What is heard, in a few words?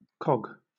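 "cog", British English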